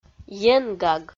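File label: "енгаг", Komi, female; kpv audio dict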